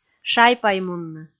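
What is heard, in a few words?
"шай-паймунны", Komi, female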